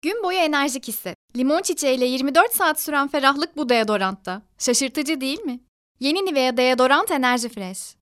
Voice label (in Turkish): reklam